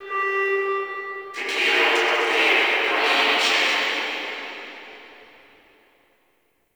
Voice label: Guild Announcer; Warframe

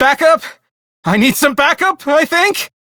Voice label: Crew Near Death, Male; Dialog; Warframe; Railjack Crew; Perrin Sequence

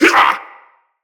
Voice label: Grunt, Grineer Male; Enemies; Kubrow Feral

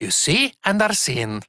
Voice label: Ost Male en; Cetus

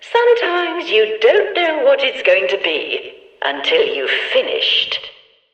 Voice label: Background Announcements; Warframe; Shadowgrapher; Follies Hunt

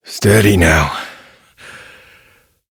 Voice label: Horse Calm Adult; Duviri

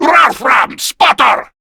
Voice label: Player Spotted, Male; Warframe; Grineer Male B; Battle Barks

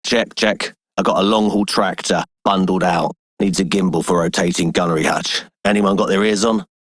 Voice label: Male Dispatcher, en; Dialog; Warframe; Solaris Venus

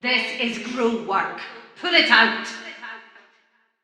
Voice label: Soldier Female Helmet, Barks Battle, en; Sinecure

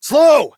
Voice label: Horse Stop Adult; Duviri

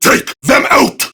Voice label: Attack Prime Grineer Male; Tau; Battle Barks

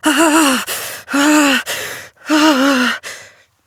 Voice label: Operator Voice

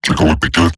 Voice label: Surprised Robot Large, Barks, Battle; Warframe; Eximus Corpus Robotic Large

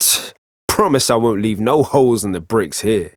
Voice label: Ambient Barks, en; Warframe; Mall Romance; Quincy

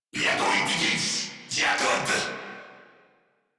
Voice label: Reload Crewmen, en; Warframe; Corpus Crewmen; Crewman A; Battle Barks

